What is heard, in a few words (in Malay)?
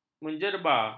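"menjerba", Malay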